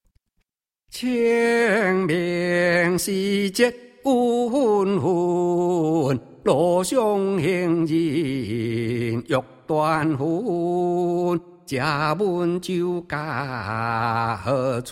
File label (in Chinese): 清明 吟誦